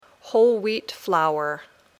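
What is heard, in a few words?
"whole wheat flour", English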